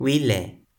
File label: "wile", Toki Pona